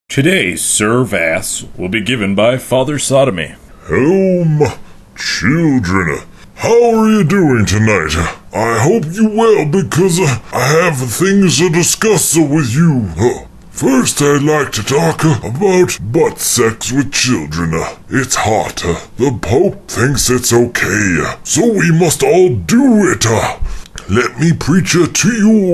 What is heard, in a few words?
Commercial; fake commercials; Statutory Rape Kit